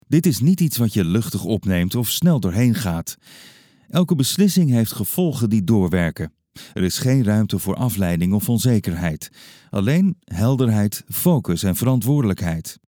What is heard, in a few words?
dutch explainer videos